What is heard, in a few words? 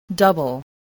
"double", spelling